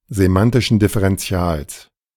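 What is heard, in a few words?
"semantischen Differentials", German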